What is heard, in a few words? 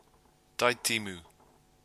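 "tai timu", Maori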